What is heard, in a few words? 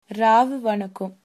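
good night, Tamil, female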